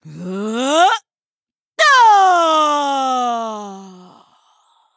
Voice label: Female Human Death